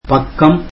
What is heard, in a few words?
tamil page